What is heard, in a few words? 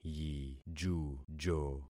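"yijujo", concatenated syllables; Affixing experiment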